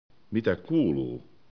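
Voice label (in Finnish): "mita", Finnish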